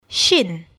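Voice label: "shin" English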